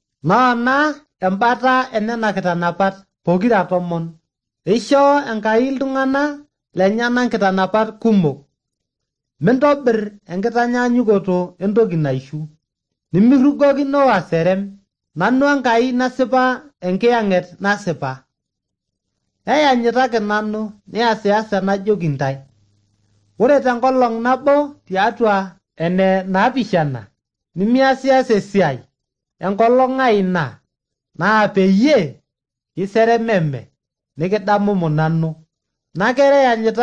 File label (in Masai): maasai bsv nt drama; good news; bible